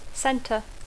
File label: "center", English